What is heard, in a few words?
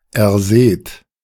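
"erseht", German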